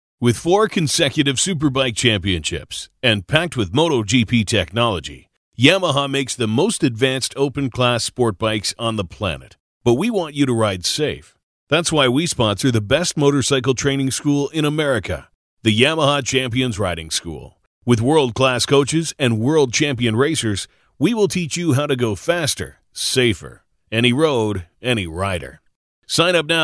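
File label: Canadian English, male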